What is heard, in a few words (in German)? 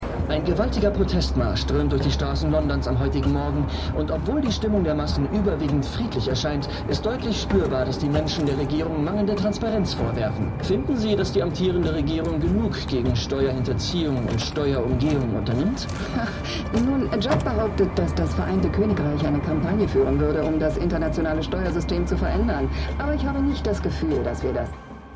Nachrichtensprecher Korrespondentin; Slow Horses